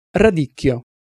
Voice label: "radicchio", Italian Pronunciations